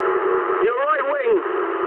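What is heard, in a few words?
English right wing mood med; speech; War Thunder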